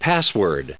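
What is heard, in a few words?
"password", us English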